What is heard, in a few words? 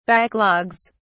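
"backlogs", British English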